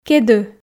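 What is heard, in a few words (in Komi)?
"кеды", Komi, female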